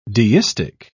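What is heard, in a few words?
"deistic", British English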